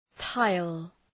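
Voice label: "tile", English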